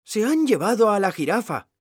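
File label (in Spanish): "se han llevado", Spanish; mekanta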